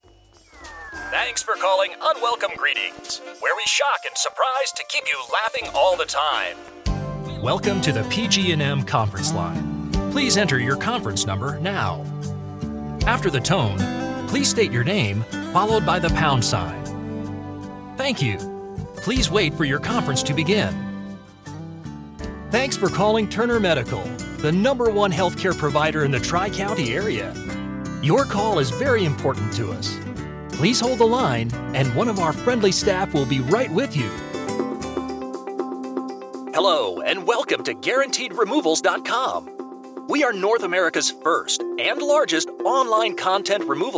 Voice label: male